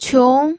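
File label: "qiong", Mandarin Chinese, second tone; pinyin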